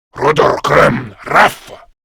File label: Attack Grineer Male; Warframe; Battle Barks